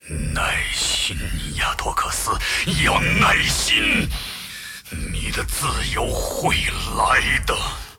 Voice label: move, zh; Aatrox

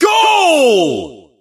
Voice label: announcer vo goal

